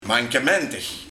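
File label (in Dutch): "Mankementig", dialect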